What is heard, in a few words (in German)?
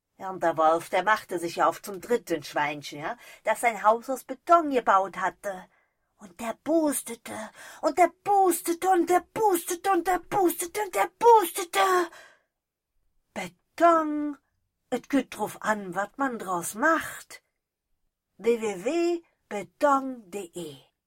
werbung rheinisch